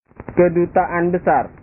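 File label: "kedutaan besar", Indonesian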